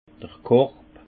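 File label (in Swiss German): "korb", Haut Rhin; vin; panier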